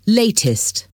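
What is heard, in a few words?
"latest", English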